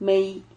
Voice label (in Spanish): "mei", Silabas